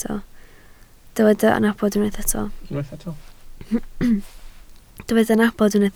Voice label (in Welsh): "nabod", Welsh